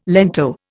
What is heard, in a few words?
"lento", Indian